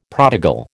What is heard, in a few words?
"prodigal", American